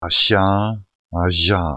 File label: alveolo-palatal